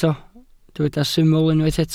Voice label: "syml" Welsh

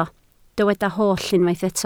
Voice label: "holl", Welsh

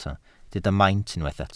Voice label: "maint" Welsh

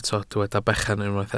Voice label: "bechan", Welsh

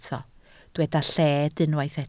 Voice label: "lled", Welsh

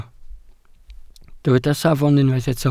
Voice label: "safon", Welsh

